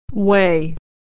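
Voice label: "weigh", American English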